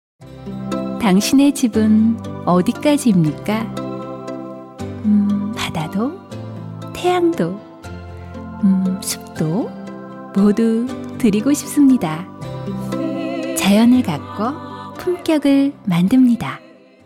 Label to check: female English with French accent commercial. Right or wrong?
Wrong